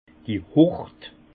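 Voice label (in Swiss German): "hurte", Haut Rhin; vin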